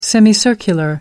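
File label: "semicircular", English, British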